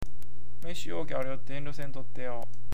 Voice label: "youke", awaji ben